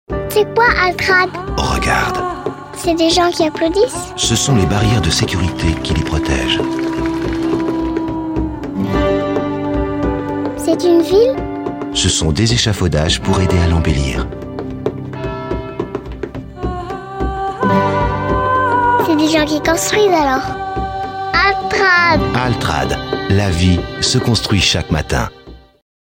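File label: French, male, explainer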